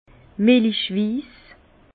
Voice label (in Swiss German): "melichwiss", Bas Rhin; vin; opalescent